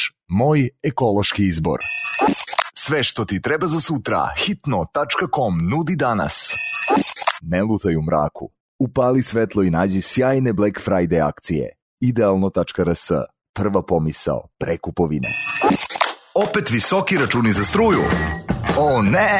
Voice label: serbian commercial; positive; voice actor